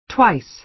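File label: "twice", American English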